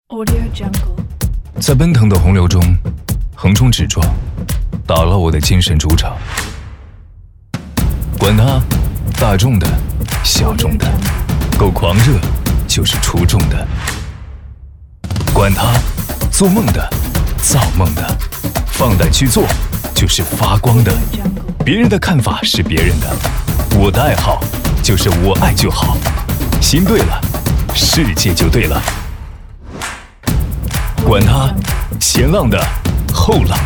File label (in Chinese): 洋气酷炫; 腾讯视频